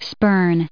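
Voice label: "spurn", US English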